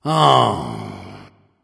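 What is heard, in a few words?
sigh, dragon; fafnir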